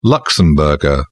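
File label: "luxembourger", British English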